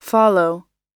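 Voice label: "follow", US English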